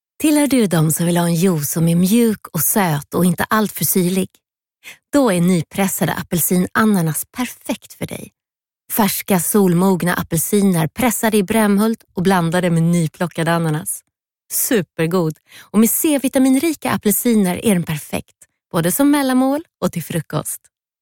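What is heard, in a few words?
Swedish female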